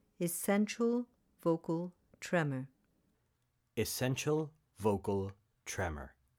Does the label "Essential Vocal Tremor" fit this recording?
Yes